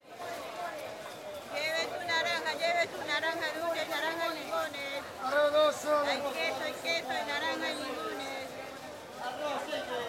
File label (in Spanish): mercado de Iquitos vendedores ambulantes; Gente; ruido